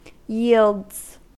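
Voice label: "yields", US English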